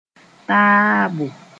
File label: "taabo", moore; arriving